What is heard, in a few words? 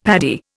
"Paddy", Indian; Dictionary